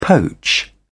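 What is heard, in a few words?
"poach", British English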